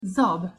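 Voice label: "zab", Hungarian pronunciation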